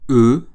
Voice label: [ø], French